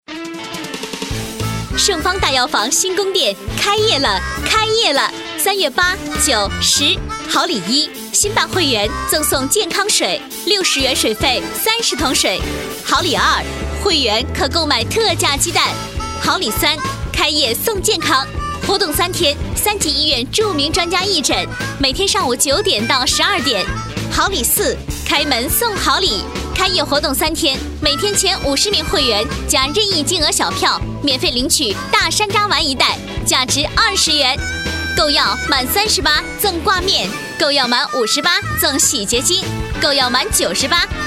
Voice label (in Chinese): 药房开业宣传